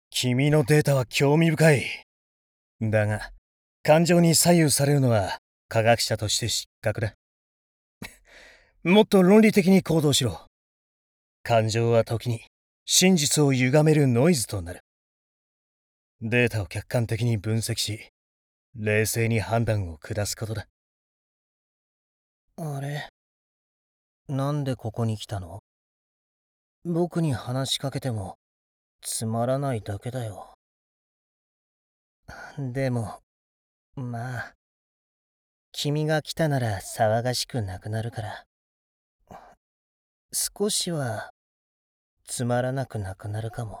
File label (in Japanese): アニメサンプルボイス, 声優